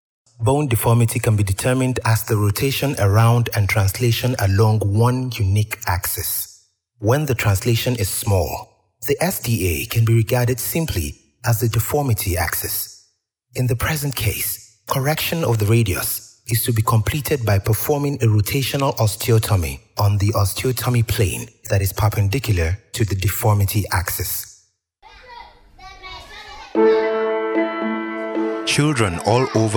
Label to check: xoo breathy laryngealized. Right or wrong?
Wrong